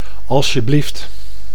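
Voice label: "alstjeblieft", Dutch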